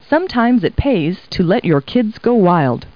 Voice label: people, kid